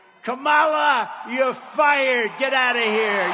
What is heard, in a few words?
eng